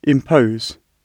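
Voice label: "impose", British English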